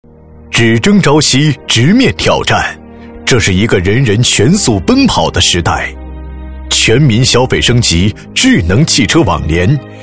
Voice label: 男 品牌宣传 大气有力 智能汽车网联; 一汽轿车

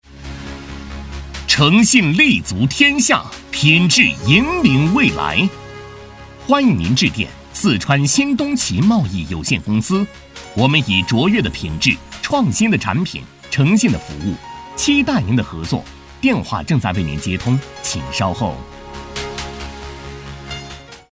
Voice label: 男 彩铃 大气

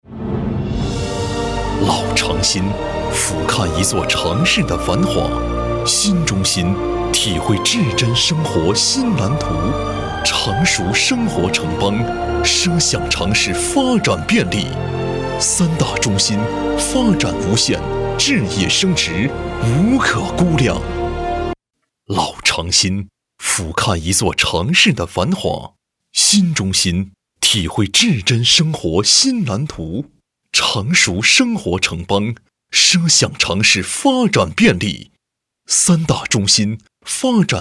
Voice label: 男 广告 稳重 浑厚; 明宇东港新城

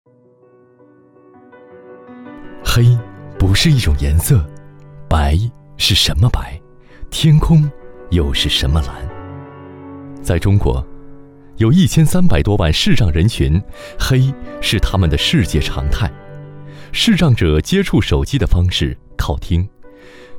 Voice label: 男 暖男旁白 年轻温和

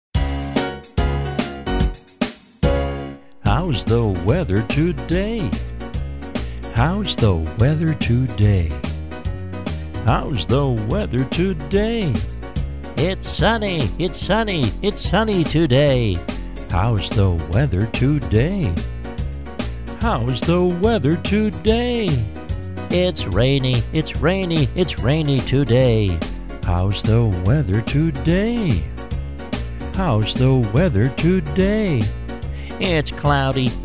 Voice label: 外籍男 少儿英语说唱配音

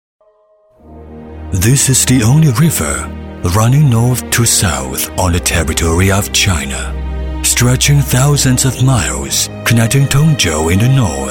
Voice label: 英 男, 专题, 大气沉稳; 北京基金小镇

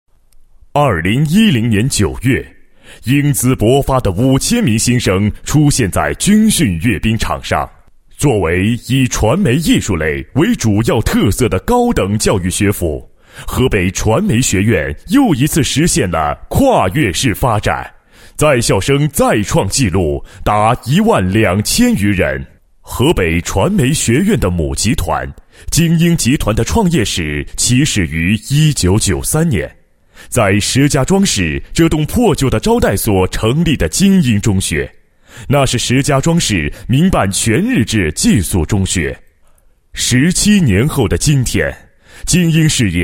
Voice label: 男 厚重大气, 国语男声配音; 学校

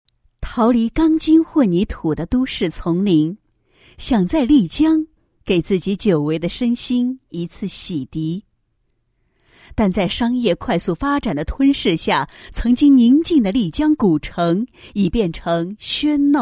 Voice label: 女 散文 散文宣传; 彼岸 丽江悦

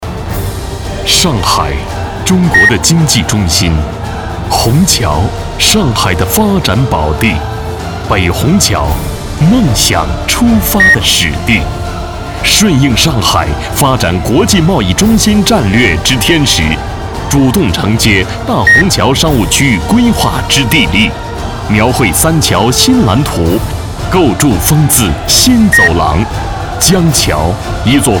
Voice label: 男 样音 大气企业宣传, 国语男声配音

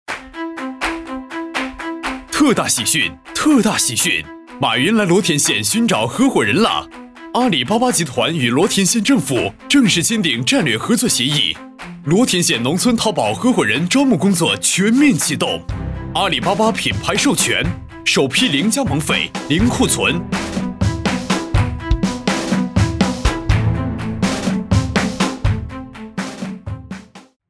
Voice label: 男,广告,招商; 淘宝合伙人

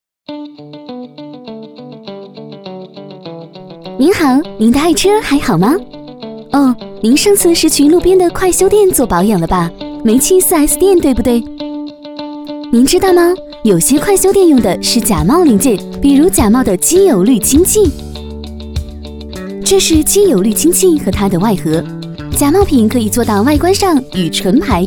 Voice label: 女; 飞碟说; 病毒营销

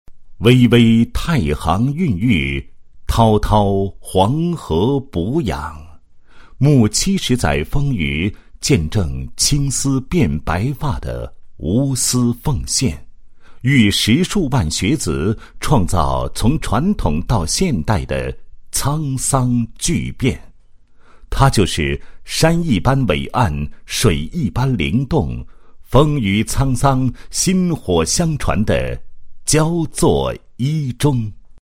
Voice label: 男 学校 校庆解说词; 一中七十周年校庆解说词

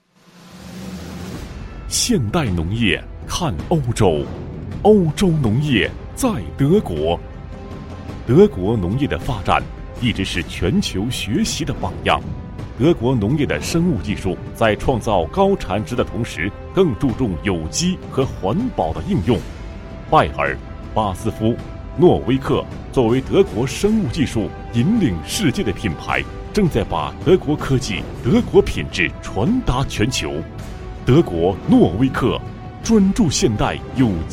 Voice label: 男 专题 有机农业, 国语男声配音; 磁性; 德国挪威克

